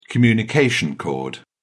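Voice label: "communication cord", British English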